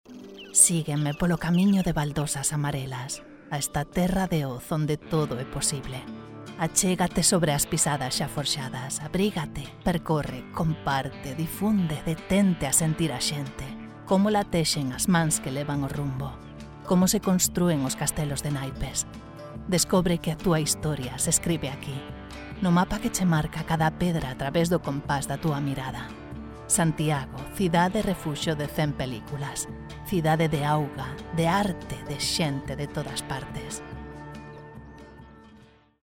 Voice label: GALICIAN INSPIRATIONAL, spanish commercial voiceover